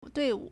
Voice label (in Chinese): "dui4wu4", Chinese